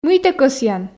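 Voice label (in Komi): мый тэ кӧсъян, Komi, female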